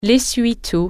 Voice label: "paper towels", french